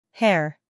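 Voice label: "hair", American English, female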